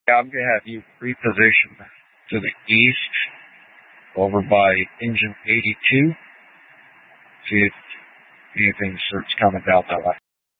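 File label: Incident Tac 2, Scanner audio recording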